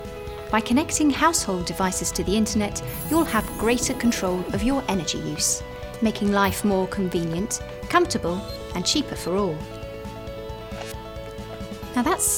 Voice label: corporate